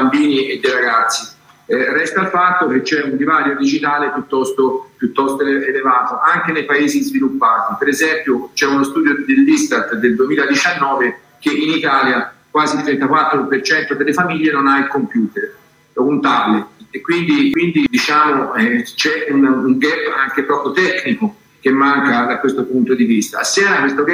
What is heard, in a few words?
e-learning